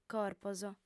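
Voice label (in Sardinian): "corpos", female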